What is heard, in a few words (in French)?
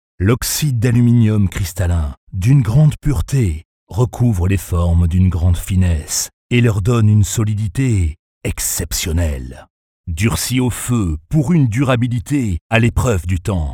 voix grave forte mysterieuse pub; titanglaze